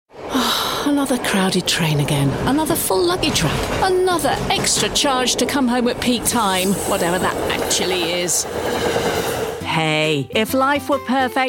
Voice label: commercials, Elegant Female Voiceover